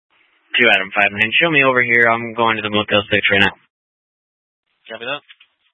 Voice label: Mesa PD Dispatch

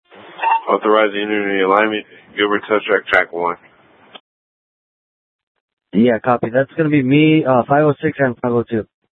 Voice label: Public Transit System Light Rail Ops, scanner

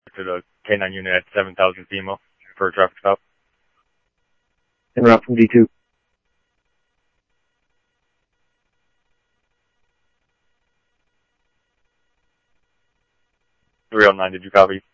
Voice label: Police, scanner audio

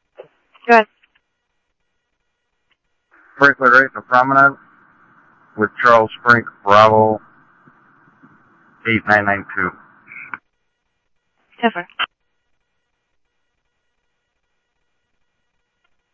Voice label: PD Police, scanner audio

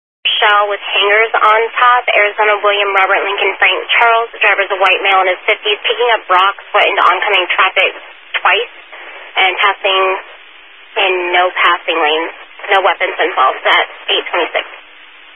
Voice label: Highway Patrol, Phoenix Metro East Area, scanner

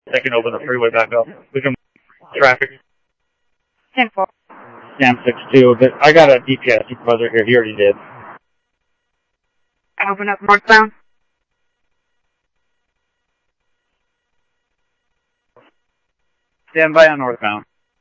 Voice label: Mesa Simulcast police; jumper

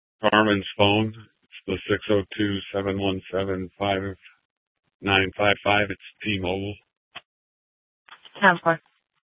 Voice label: Sheriff, scanner

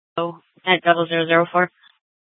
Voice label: Scottsdale PD Sth Dispatch, scanner

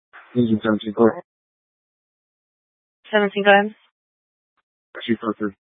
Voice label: Fire Dispatch

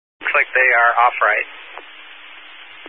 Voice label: Highway Patrol, scanner; DPS EAST

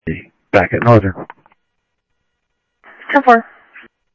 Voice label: Security, scanner audio

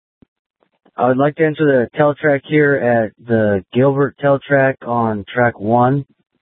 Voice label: Public Transit System Light Rail Ops, scanner; Regional Wireless Cooperative (RWC) - South Mountain High; Valley Metro

